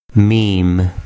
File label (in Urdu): "meem", Urdu